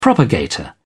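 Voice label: "propagator", British English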